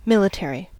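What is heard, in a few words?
"military", US English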